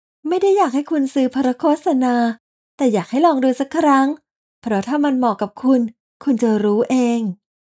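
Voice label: Ads Soft Sell, Radio Commercials, Female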